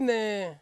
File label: "ne", Ansus; have